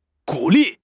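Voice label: "gu3 li4", Mandarin Chinese; HSK4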